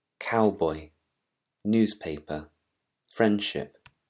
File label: english pronunciation rules